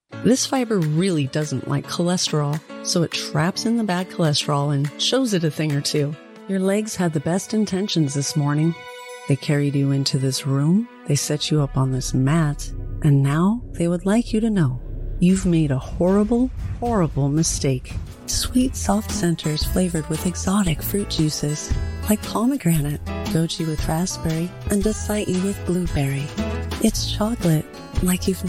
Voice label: 外籍女英 外语 美式英语 样音 沉稳

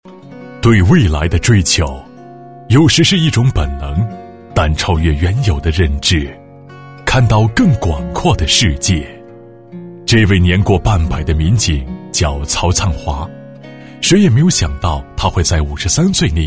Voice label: 温情; 人物; 警员介绍

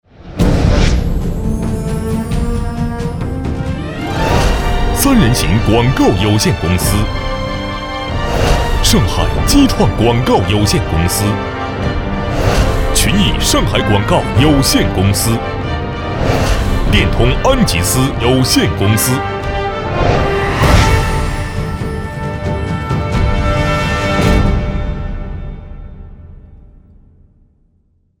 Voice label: 男国 专题 颁奖; 易车颁奖

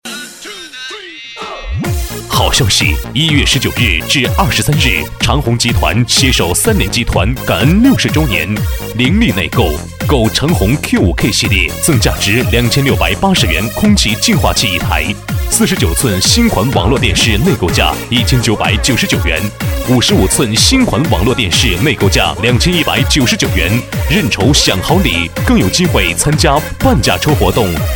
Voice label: 男 广告 促销 浑厚

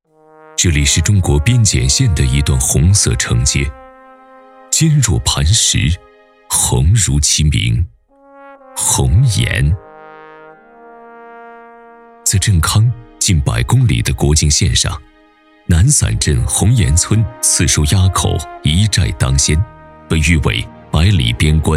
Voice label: 其他 栏目 国防军事频道, 男; CCTV-7国防军事频道祖国守边人

